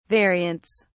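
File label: "variants", British English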